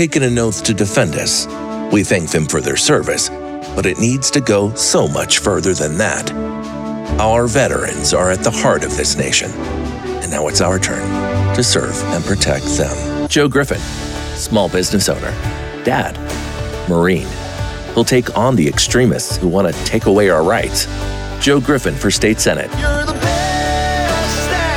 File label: english american political ads